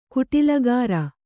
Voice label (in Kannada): "ಕುಟಿಲಗಾರ", Kannada